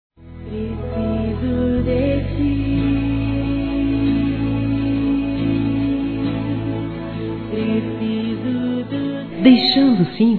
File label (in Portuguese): Evangélico Aniversário Pastor Feminino; Aniversários